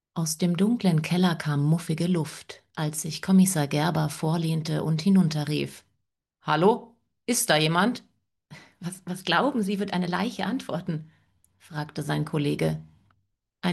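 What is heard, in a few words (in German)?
Hörbuch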